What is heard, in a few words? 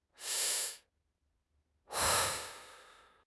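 "xie1", Chinese; HSK1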